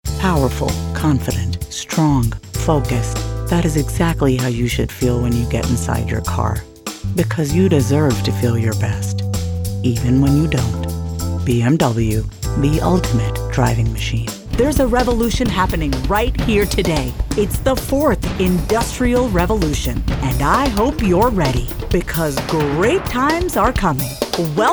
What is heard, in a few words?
commercial; demo reel